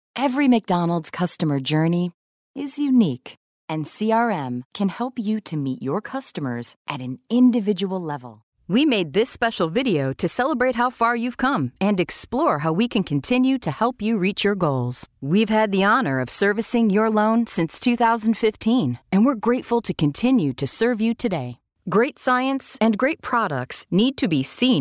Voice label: Narration